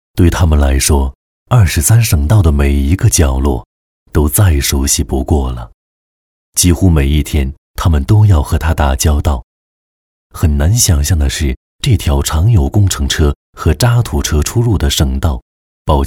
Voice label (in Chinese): 男 走心旁白; 《我们一直在路上》